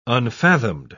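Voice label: "unfathomed", English, uk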